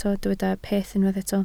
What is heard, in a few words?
"peth", Welsh